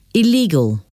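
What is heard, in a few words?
"illegal", English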